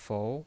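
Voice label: "fou" Mandarin Chinese, second tone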